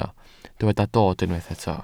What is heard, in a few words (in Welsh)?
"dod", Welsh